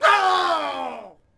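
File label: Warrior Death Vox; Civ4 warlords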